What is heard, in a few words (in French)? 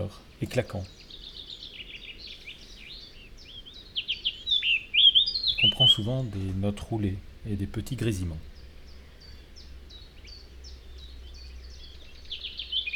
fauvette commentee